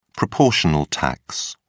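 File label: "proportional tax", British English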